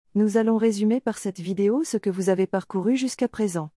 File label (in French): VOIX OFF